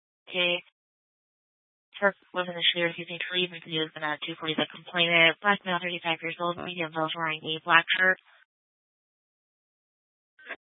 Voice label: Tempe PD, scanner; Rgnl Wireless Co-op (RWC)